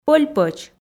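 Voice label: "пӧль-пӧч", Komi, female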